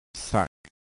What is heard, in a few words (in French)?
"sauut", ton bas, thailandaise